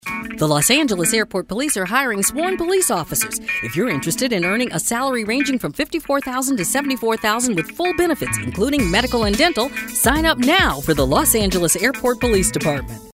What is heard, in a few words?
informative